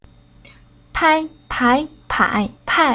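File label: "Pai", Chinese syllable; Уроки фонетического блока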